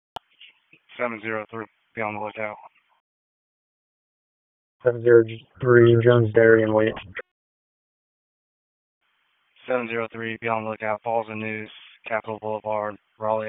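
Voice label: Wake Forest Police Dispatch, p25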